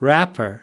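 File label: "wrapper", US English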